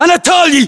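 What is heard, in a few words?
"anatoly", battlechatter, Russian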